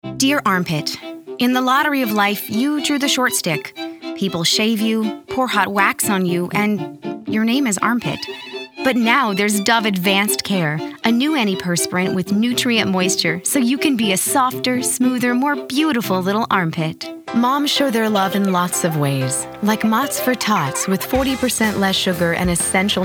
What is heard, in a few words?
Voice Over